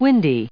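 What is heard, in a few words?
"windy", English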